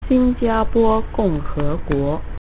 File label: singapore mandarin